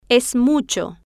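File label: es Mucho, Spanish, female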